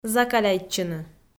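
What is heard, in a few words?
"закаляйтчыны", Komi, female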